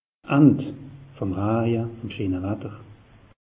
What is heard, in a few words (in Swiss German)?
"end", alsace; meteo